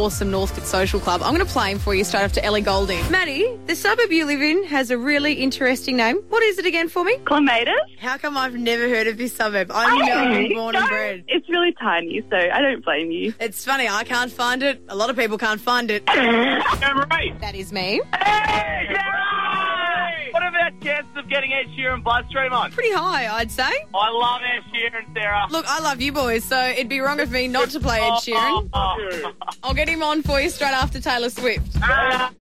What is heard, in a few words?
Music Presenter; Nova 100; ACRA Entry Audio Best Entertainment Music Presenter